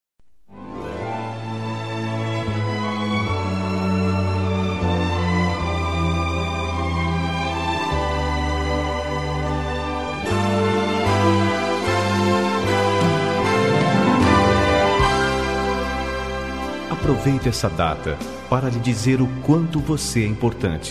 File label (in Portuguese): Feliz Natal Romantico